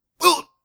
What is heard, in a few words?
Hurt Light; X Lines; X line pickups